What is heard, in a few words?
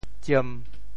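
"tsiem1", Teochew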